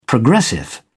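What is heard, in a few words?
"progressive", British English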